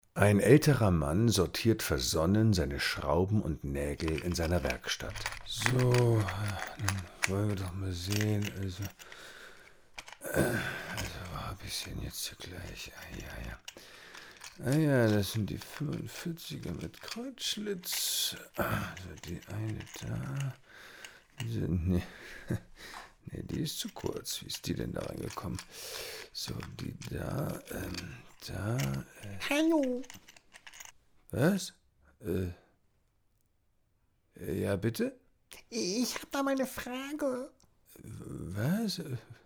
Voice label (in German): hoerspiel